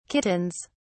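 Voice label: "kittens", British English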